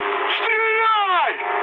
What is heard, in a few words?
attack position, mood high, speech ru